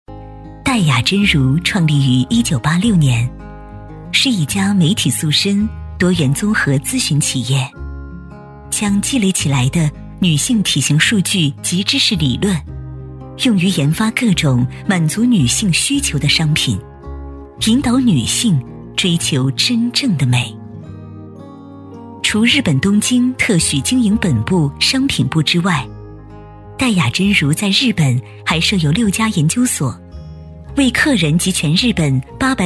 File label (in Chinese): 女, 塑身, 高雅大气; 企业专题; 质感磁性; 戴雅珍如塑身